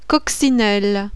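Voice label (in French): "coccinelle", French; FAMILLE (BIOLOGIE)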